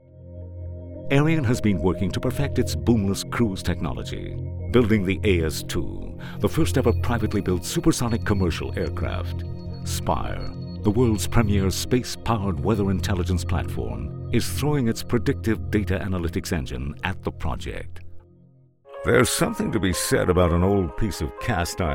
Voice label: AMERICAN TRANSATLANTIC

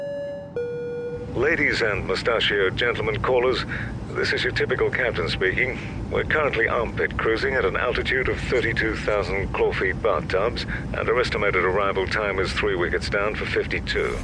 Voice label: Airline Pilot

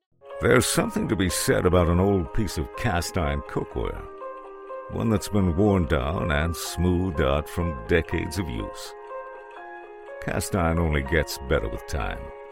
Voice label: Cast Iron Cookware Reminising

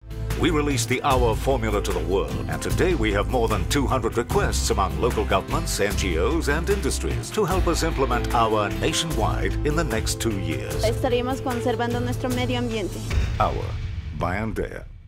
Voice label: soap commercial; AWA

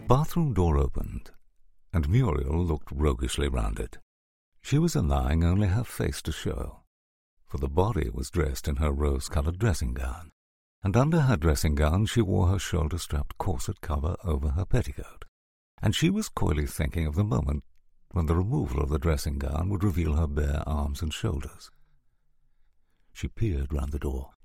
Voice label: Intimate RP English conversational

